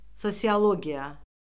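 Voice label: "sosiologiya", Azerbaijani; Orfoqrafiya Lüğəti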